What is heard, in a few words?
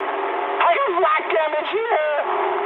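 damaged anti-aircraft mood high, speech English; War Thunder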